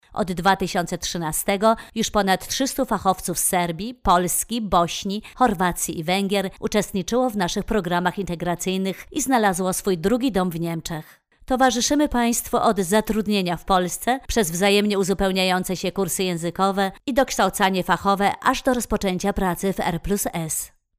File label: locutora polaca polish voiceover; Polish female voice over